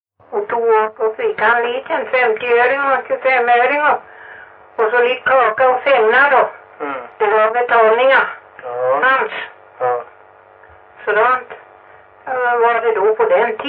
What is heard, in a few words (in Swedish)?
Stenåsa ÖL, dialekter; Folkminnen; Svenska sagor